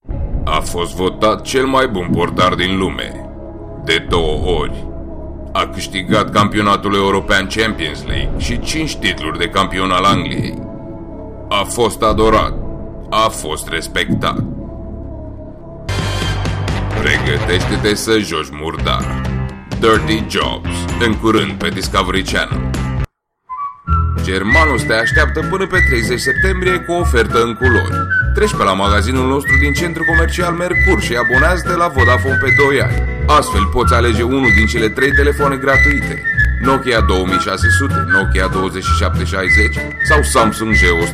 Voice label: Romanian-TV Announcing Promo and Commercial Advertising